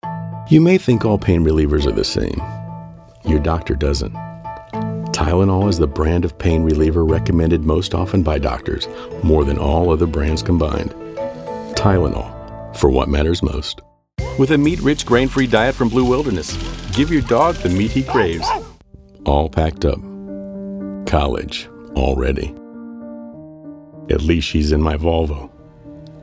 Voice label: National Commercial